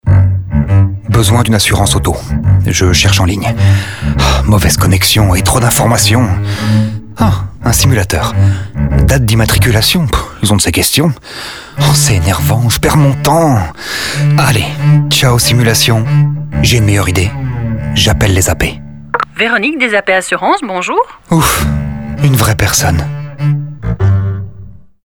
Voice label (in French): Radio, FR; DVV; Auto